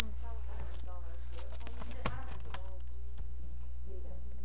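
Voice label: "puppy", spoken word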